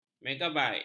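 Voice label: "megabait", Malay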